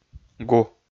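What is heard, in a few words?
"гу", Komi, male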